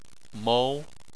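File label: "mou", Chinese, second tone; Chinese Surnames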